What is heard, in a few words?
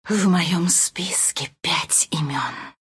battle begins, ru; dota2